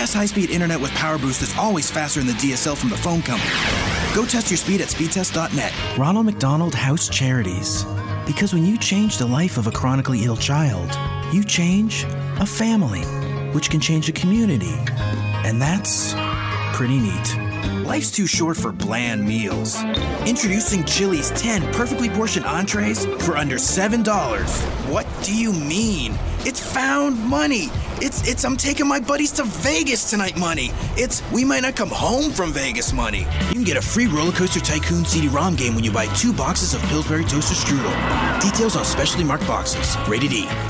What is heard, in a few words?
Commercial Male, Hip, Contemporary, Real, Millennial; Commercial Voiceover, TV and Radio